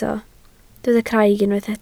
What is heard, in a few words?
"craig", Welsh